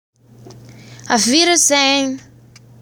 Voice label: german line